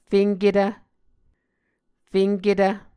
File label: "fenketv", muscogee